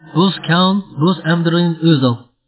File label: kalmyk